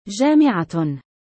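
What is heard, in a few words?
"jaami3atun", Arabic; taa marbuuTa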